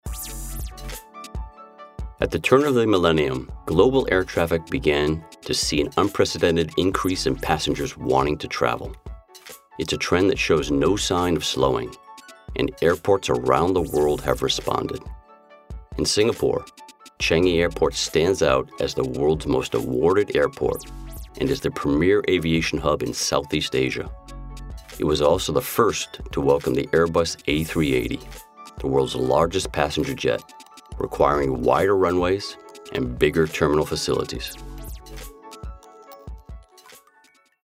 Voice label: US English Documentary; Changi Terminal 3

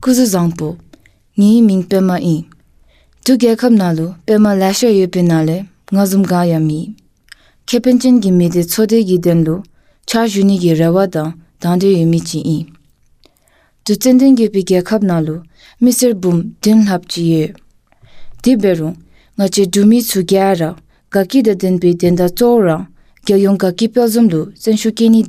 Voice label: Dzongkha Female Sample

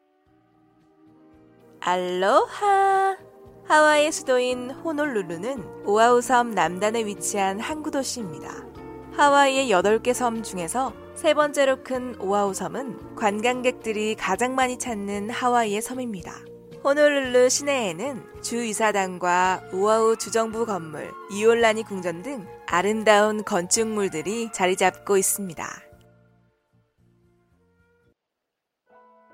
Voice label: Korean Tourism Spot

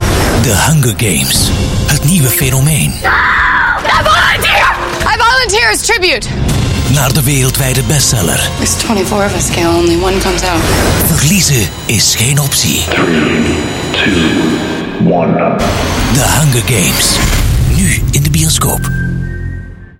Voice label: Flemish, Flemish Male; The Hunger Games

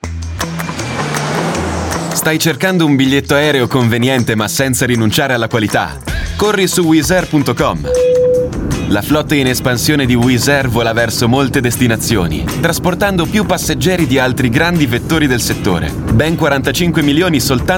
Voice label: Italian Spot; SPOTIFY IT WIZZ AIR

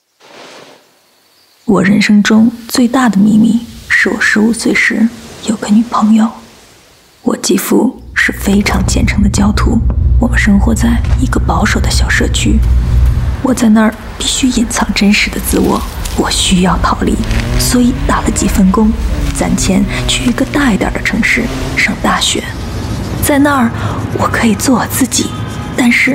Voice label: Mandarin China Commercial; United Nations

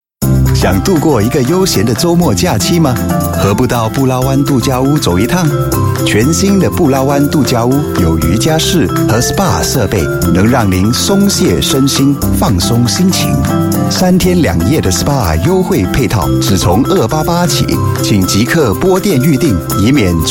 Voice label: Mandarin, Male; China; Pulawan

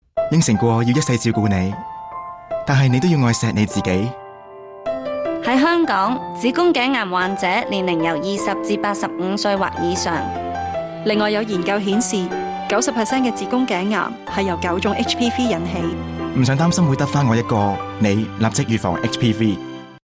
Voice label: Cantonese; HPV

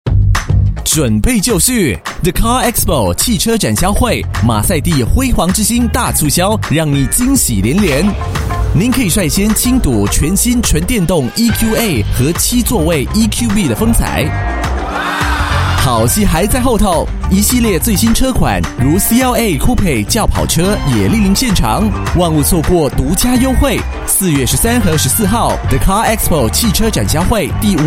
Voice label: Mandarin; CAR EXPO 2024

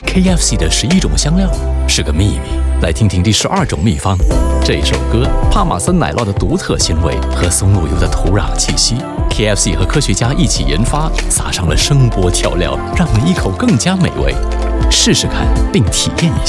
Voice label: Mandarin Classy Sale; KFC

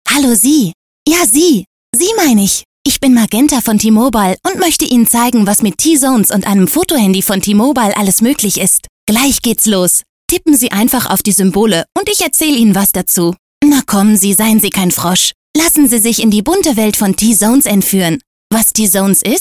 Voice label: German Female; T-Zone

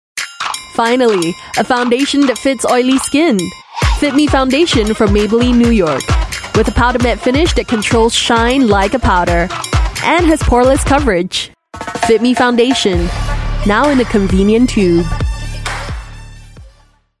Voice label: Asian English Edgy; Maybelline

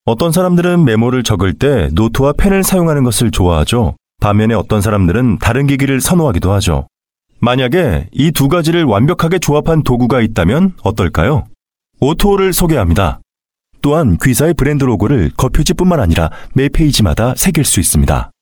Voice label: Korean

